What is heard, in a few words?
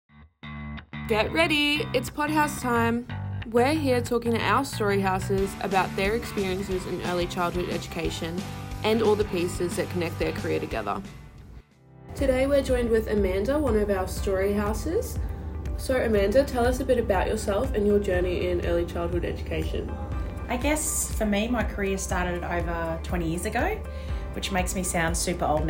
Voiceover